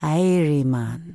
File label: Troll Female Laid Back NPC Greeting